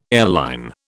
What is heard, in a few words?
"airline", British